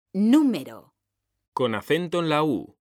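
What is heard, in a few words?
"número", Spanish; mekanta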